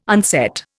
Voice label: "unset", Indian